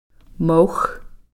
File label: "mox", grouse